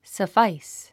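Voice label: "suffice", English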